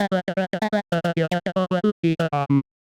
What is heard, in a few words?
medium clear gruff; voxel audio preview